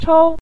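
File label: "chou" Mandarin Chinese, neutral tone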